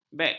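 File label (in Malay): "bek", Malay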